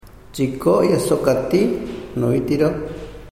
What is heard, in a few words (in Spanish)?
moqoit pez; materiales bilingues; huellas